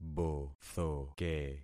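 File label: "bothoke", concatenated syllables; Affixing experiment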